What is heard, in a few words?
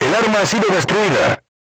voice message weapon destroyed, crew tanks spanish